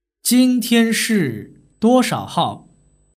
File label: "duoshaohao", Chinese; days